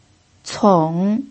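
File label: "cong" third tone, Mandarin Chinese pinyin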